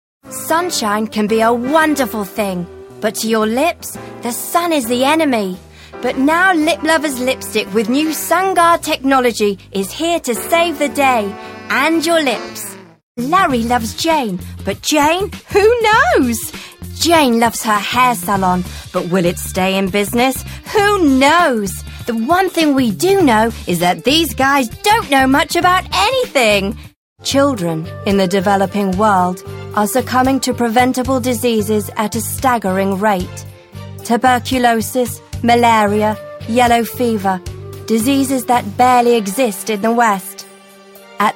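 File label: British English, TV commercial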